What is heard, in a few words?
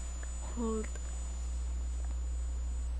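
"hold", spoken word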